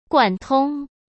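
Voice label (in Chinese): "贯通", Mandarin Chinese; hsk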